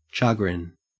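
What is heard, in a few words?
"chagrin", Australian English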